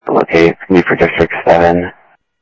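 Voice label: Sheriff East County, scanner audio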